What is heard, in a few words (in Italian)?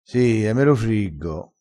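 "friggersi", vocabolario dialettale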